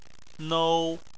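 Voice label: "nou" first tone, Chinese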